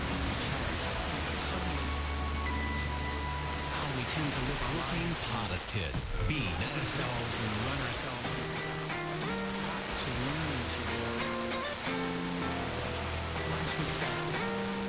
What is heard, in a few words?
call ES; KBRK